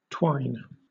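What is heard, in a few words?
"twine", British English; pronunciations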